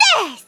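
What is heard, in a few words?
"desu", Japanese; sven; player; snekky; kujoukaren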